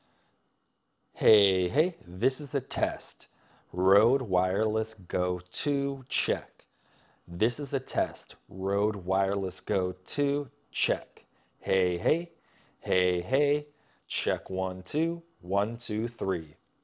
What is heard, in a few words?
Rode Wireless Go 2 Sound Test